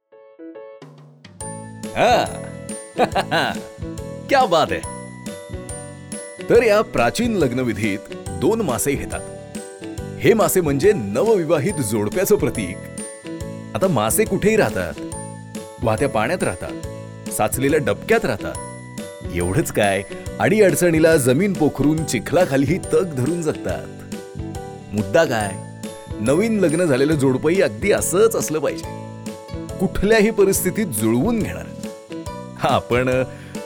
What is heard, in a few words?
Marathi Narration